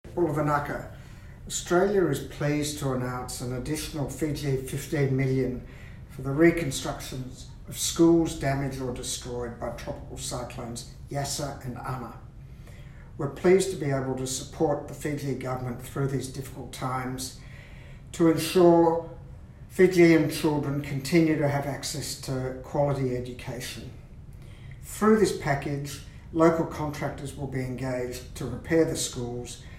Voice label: Radio Grab; Australian High Commissioners